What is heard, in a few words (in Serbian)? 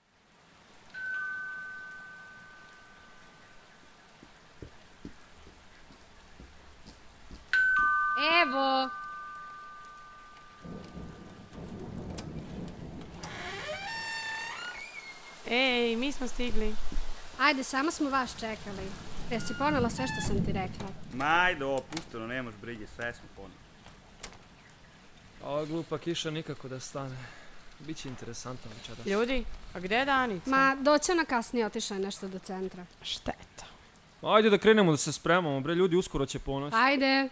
radio drama ponocna igra